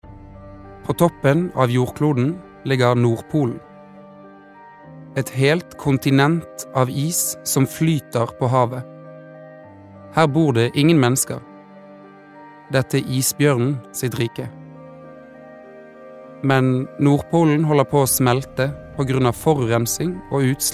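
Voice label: Norwegian documentary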